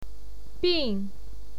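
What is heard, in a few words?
"bin", Mandarin Chinese, fourth tone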